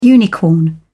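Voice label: "unicorn", British English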